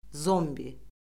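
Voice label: "zombi", Azerbaijani; Orfoqrafiya Lüğəti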